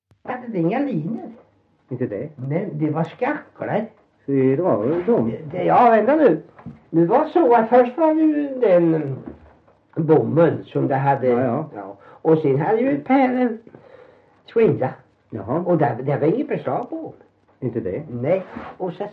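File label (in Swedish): Värö Halland, Svenska sagor dialekter; Folkminnen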